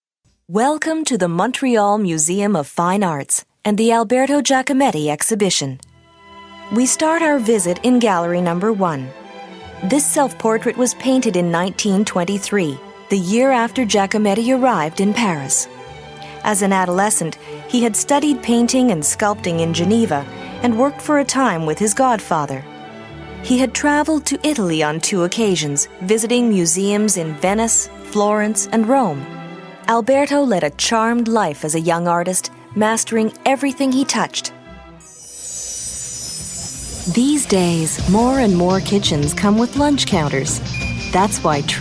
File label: narration corporate